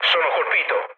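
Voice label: Italian aircraft destroyed mood low, speech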